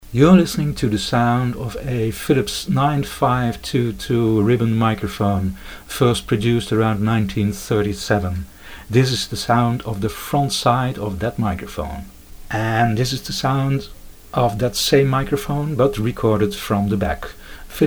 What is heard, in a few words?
Philips 9522 front & back sound